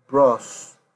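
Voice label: "bros", Nigerian Pidgin, male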